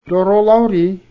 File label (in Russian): "печатать", nanai